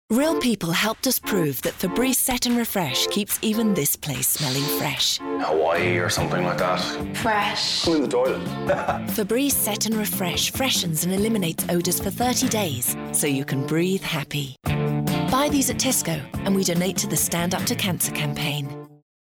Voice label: uk englisch, speaker

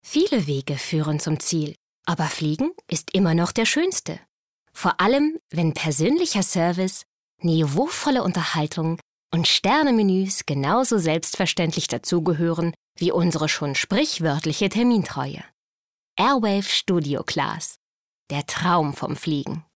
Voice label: Werbung

